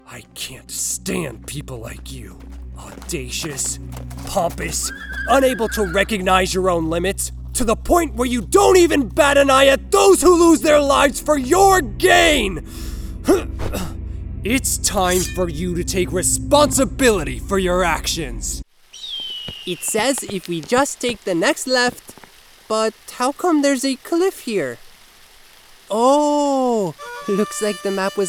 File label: character